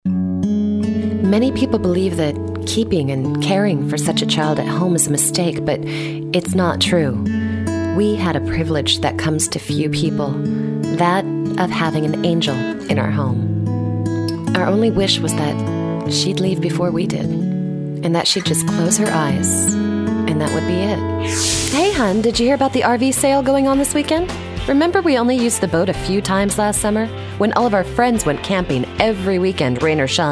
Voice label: Testimonial